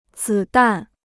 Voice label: "子弹", Chinese

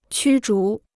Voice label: "驱逐", Chinese

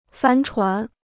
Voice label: "帆船", Chinese

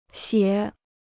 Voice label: "写", Chinese

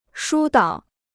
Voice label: "疏导", Chinese

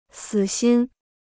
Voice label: "死心", Chinese

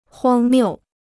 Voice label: "荒谬", Chinese

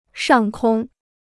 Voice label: "上空", Chinese

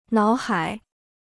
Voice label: "脑海", Chinese

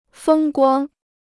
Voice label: "风光", Chinese

